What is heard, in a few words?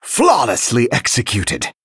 Male Dragon After Triple